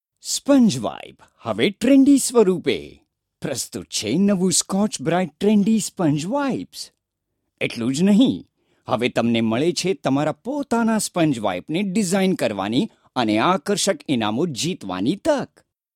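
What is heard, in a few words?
Gujarati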